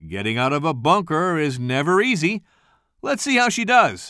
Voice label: commentator